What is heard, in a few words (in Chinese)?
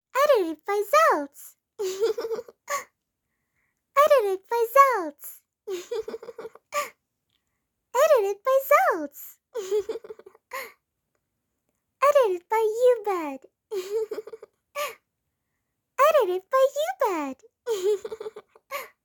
外籍女声 英式萝莉音